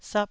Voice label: "sap4", taiwanese syllable